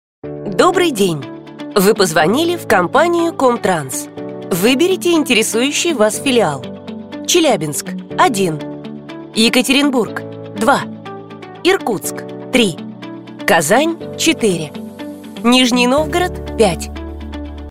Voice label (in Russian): Озвучка IVR транспортной компании; ДИКТОРЫ ДЛЯ IVR